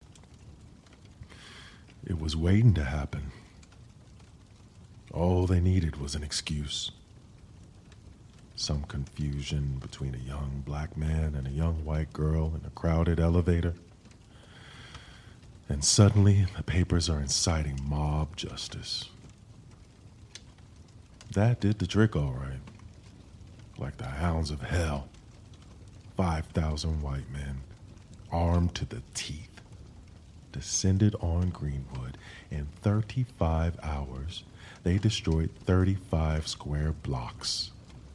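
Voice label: American English, Audio Book; GoLocalise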